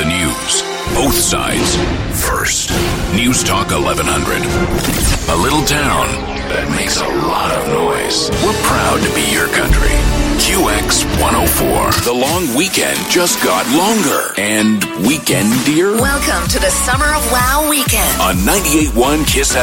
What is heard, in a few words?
VOICE RADIO